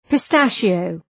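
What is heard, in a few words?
"pistachio", English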